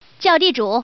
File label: order, woman; guizhou